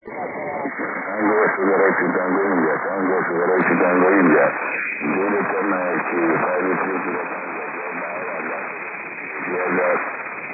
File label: 6m ssb